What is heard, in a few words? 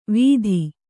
"ವೀಥಿ", Kannada